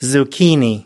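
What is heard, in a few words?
"zucchini", US English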